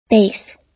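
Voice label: "base", US English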